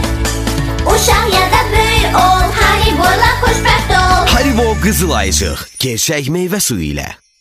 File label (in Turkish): haribo reklam; azerbaycan